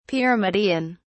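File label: "pyramidion", British English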